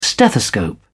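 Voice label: "stethoscope", British English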